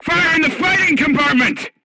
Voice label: voice message fire in tank, crew tanks portuguese